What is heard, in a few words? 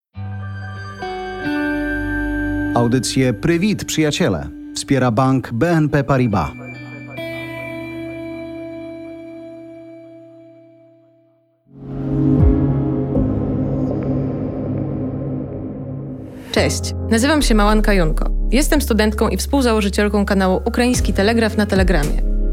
ukrainian basic jingiel; internetmaster